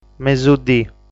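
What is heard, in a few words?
"Mesudii", Sardinian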